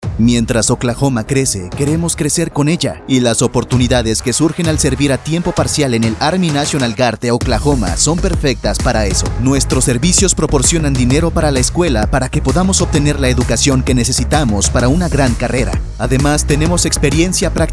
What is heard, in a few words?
Radio Spanish; Grow With Oklahoma